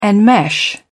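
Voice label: "enmesh", British English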